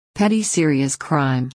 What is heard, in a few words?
petty serious crime us; Crime and punishment